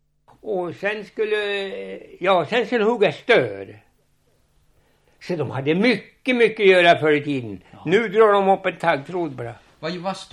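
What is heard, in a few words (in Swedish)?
Alunda Uppland, dialekter; Folkminnen; Svenska sagor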